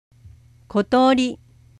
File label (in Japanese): "kotori", nouns nature; small bird